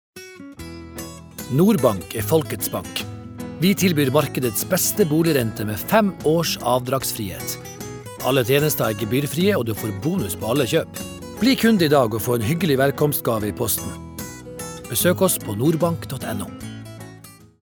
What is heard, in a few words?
no commercial nordnorsk, norsk mann